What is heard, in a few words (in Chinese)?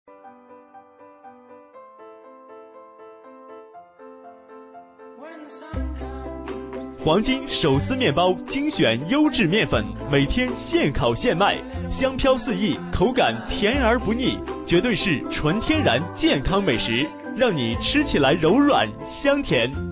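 男 广告（配乐）; 黄金手撕面包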